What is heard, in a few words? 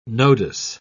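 "nodus", British English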